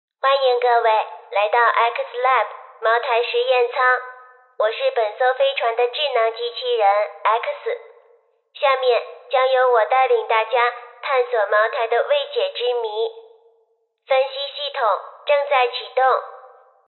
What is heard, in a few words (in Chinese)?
女 角色 效果已加 机器人